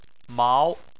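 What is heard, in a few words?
"mao", Chinese, second tone; Chinese Surnames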